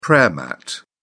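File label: "prayer mat", English, British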